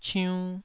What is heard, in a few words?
"ciunn2", Taiwanese